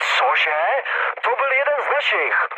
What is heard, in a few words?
player killed ally, speech cze, mood low; War Thunder